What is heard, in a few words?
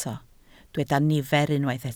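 "nifer" Welsh, spoken word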